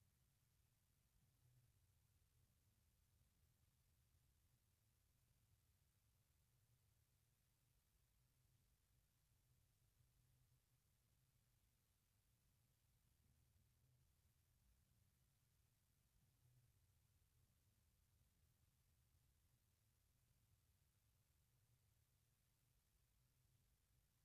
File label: french directions part b